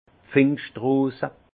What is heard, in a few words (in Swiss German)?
"pfingstrose", alsace; vin; pivoine